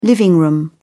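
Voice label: "living-room", British English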